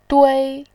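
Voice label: "dui", Mandarin Chinese, first tone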